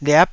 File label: "liap9", Taiwanese syllable